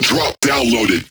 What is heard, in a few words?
Vocal Phrase Male "Drop"